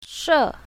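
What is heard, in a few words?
"she4", Chinese pinyin, south, female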